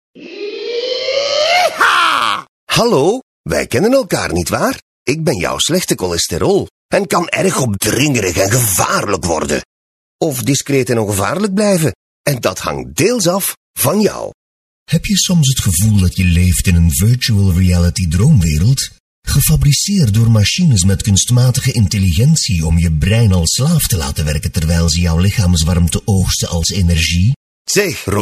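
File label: flemish male character; dutch; voice demos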